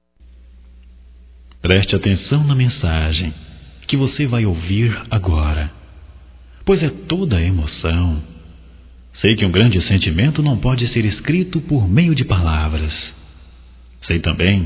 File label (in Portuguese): ROMANTICA GERAL, Românticas masc